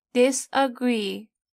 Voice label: "disagree", english